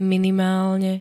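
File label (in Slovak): "minimálne", Slovak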